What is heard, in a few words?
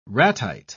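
"ratite", British English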